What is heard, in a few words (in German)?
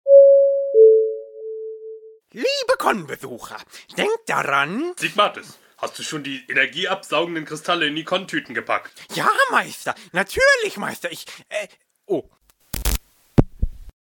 durchsage